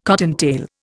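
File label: "cottontail", Indian English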